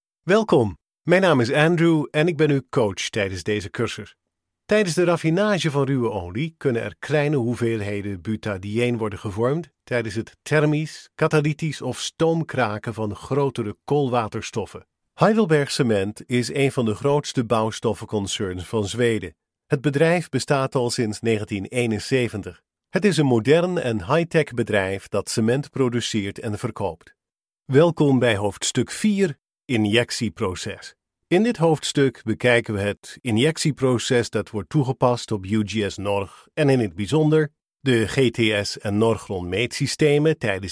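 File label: Dutch, male, narration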